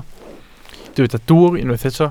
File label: "dwwr", Welsh